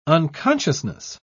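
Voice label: "unconsciousness", British English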